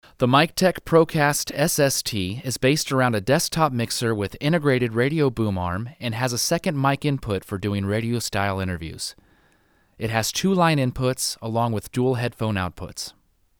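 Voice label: Vocal, Miktek Procast; usb mic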